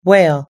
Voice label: "whale", US English